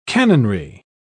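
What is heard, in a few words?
"cannonry", British English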